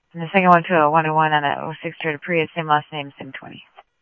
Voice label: Mesa Simulcast, MESA PD, scanner audio; 3 Red Mt; MesaPoliceDep

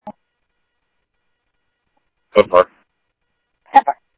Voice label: police, scanner audio

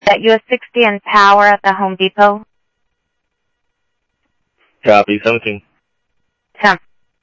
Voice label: Sheriff, scanner audio; MCSO - EAST Sheriff-EastCnty; South Scottsdale

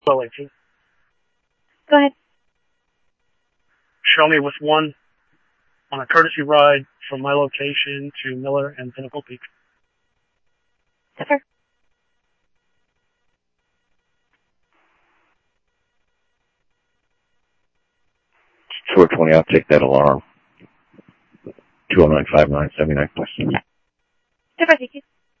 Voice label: PD Police, scanner audio

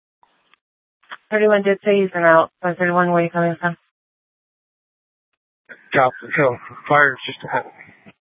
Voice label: Sheriff, scanner

